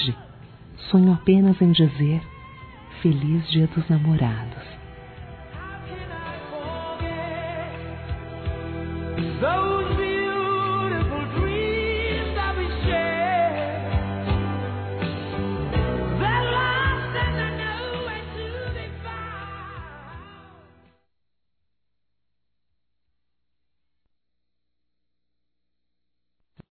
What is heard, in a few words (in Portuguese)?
complicado caso de amor f; Dia Dos namorados; fem; Telemensagens 2017